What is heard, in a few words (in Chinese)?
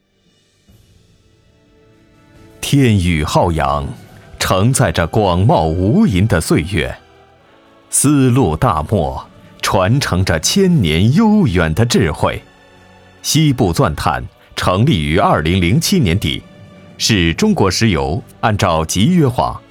男 企业宣传